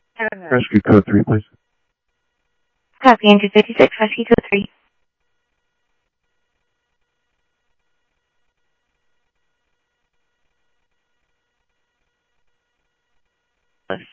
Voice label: Phoenix Fire, scanner audio; H-Scottsdale; PHXFD K7

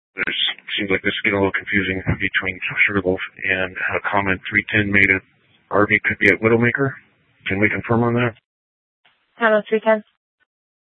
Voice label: Sheriff, scanner

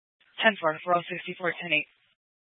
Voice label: Scottsdale Police, Simulcast

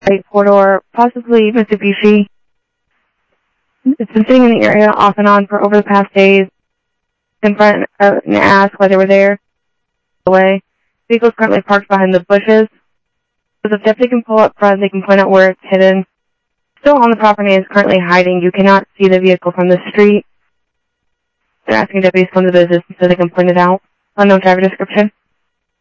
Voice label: Sheriff, scanner audio

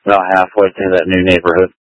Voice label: Mutual Aid-Intersystem Interop B, scanner

